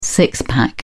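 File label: "six pack", British English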